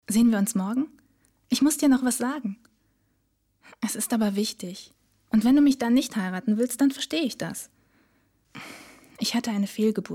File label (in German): synchron